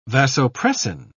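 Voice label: "vasopressin", British English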